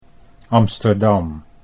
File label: "Amsterdam", Dutch